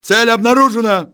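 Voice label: target acquired, russian soldier; XBOX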